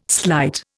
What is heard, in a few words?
"slight", Indian